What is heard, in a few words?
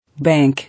"Bank", English